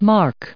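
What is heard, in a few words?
"mark" English, American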